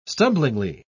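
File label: "stumblingly", English, uk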